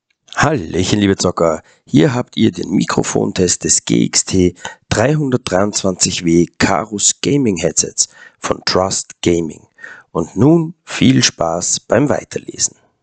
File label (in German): Mikrofon; Trust GXT 323W Carus; gaming headset; PS5